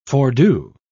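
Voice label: "fordo", en, uk British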